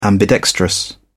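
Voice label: "ambidextrous", English, British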